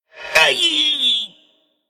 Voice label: Male Undead Death